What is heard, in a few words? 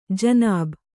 "ಜನಾಬ್", Kannada